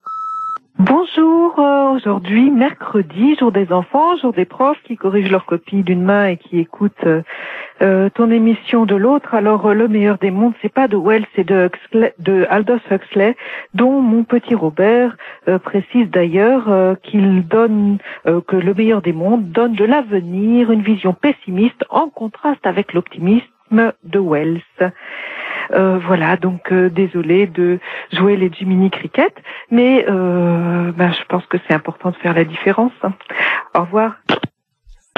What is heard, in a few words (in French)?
Mort Staline repondeur